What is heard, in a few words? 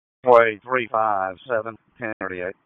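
Dispatch, p25; WSO DISP 1; wake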